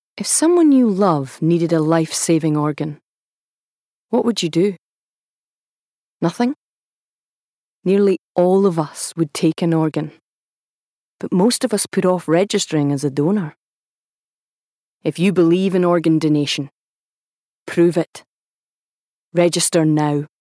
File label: Commercial; Organ Donation